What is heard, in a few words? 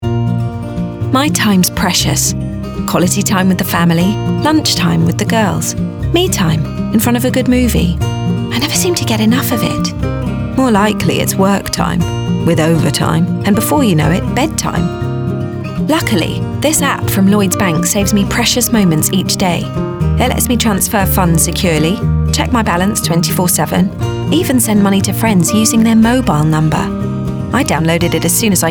natural